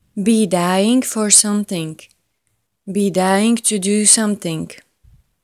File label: be dying to pronunciation slow beginner; Best English Nitra